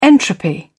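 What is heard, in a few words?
"entropy", English, British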